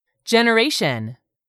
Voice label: "generation", English; 3000 words

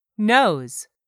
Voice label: "nose", English; 3000 words

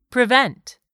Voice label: "prevent", English; 3000 words

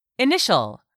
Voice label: "initial", English; 3000 words

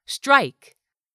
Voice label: "strike", English; 3000 words

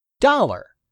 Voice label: "DOLLAR", english; 3000 words

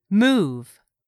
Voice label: "MOVE", english; 3000 words

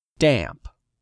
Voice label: "DAMP", English; 3000 words

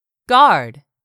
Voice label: "guard", English; 3000 words

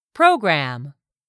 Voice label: "program", english; 3000 words

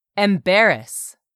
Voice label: "EMBARRASS", English; 3000 words

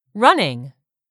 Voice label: "running", English; 3000 words

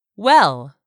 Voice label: "WELL", English; 3000 words

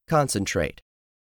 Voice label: "concentrate", english; 3000 words

